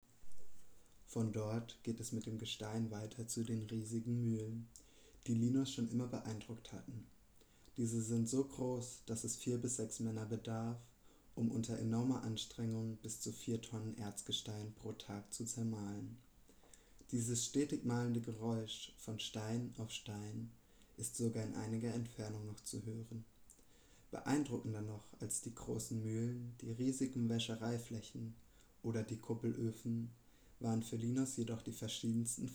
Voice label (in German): Hörspiel; Vom Silber zur Münze; Laurion Teil 4